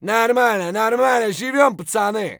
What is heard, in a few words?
scav mutter, voice; Escape from Tarkov; scav2